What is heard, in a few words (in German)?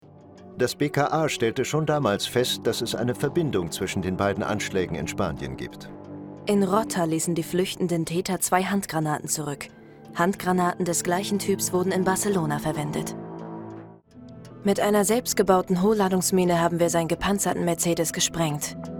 doku